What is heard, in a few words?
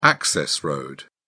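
"access road", British English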